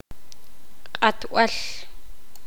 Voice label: poqomam; cuchillo